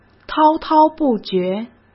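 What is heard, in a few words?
"滔滔不绝", Mandarin Chinese; chinese flashcards; hsk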